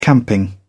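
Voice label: "camping", British English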